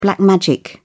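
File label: "black magic", British English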